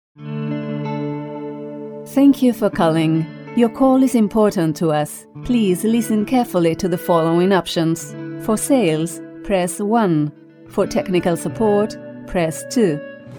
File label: English